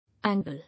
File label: "Angle", English